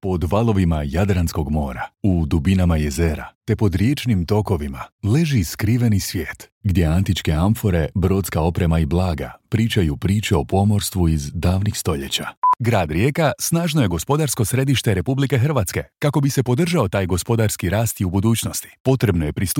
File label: croatian male narration